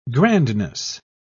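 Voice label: "grandness", English, British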